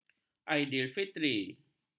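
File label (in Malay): "aidilfitri", Malay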